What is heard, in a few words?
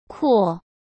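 "扩", Mandarin Chinese; hsk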